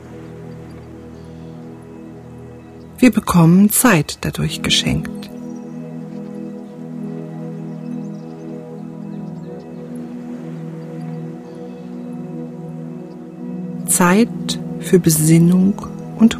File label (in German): entspannung weihnachten traumhafte winter phantasiereisen, männliche Stimme; Einen schönen Wintertag genießen